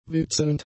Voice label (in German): "witzelnd", German; adjectives